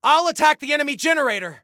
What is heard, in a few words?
Self Attack Generator, Soldier Male; Soldier Male 01